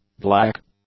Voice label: "black", English; adjectives